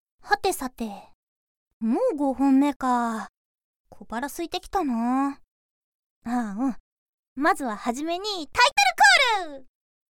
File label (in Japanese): CM（BGMなし）